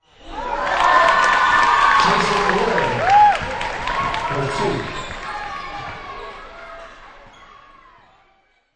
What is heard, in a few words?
Basketball Announcer Speaking With Crowd Cheering, Indoor sports